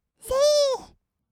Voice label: せいー, kawaii voice; 音ゲー

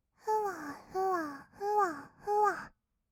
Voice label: 「ふわふわ」, kawaii voice, 合いの手; 1200 kawaii voice!!; ASMR

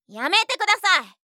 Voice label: "やめてください！", 相づち・反応系【ネガティブ】; ASMR

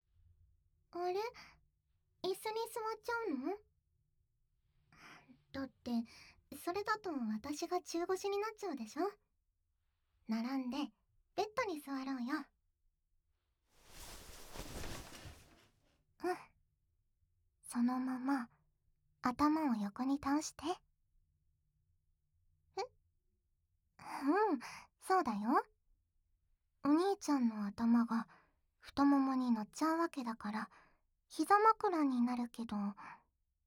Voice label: 耳かきするから、恋人の膝を貸してあげる, ASMR

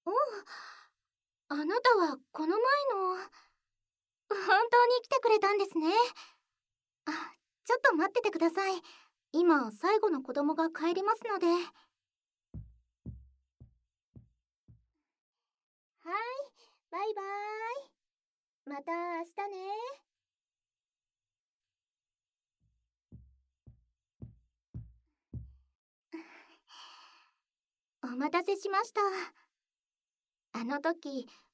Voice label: お医者さんごっこ, ASMR; おチ〇ポ注射を挿入

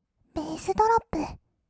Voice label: べーすどろっぷ, kawaii voice; 音ゲー

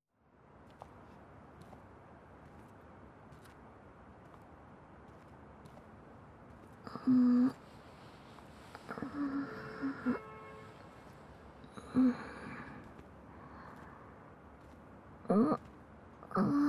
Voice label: 密着囁き耳舐め＆首筋舐め, ASMR

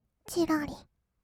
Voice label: "ちらり", kawaii voice; ASMR; 色々なワンショット

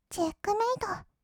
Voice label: "チェックメイト", kawaii voice; 音ゲー

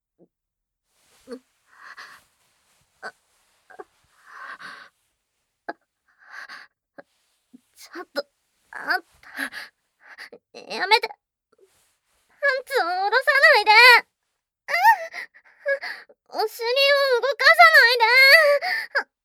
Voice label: ささやき オホ声; ギャルに催眠をかけて立ちバック犯してみた&ロッカーの中でささやきオホ声www

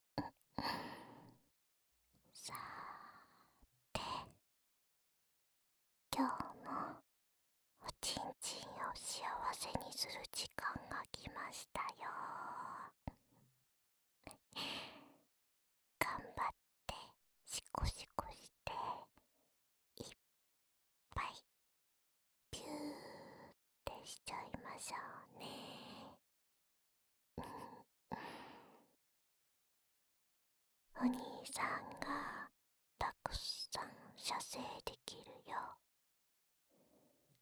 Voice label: オナニー用耳舐めボイス 右耳, ASMR

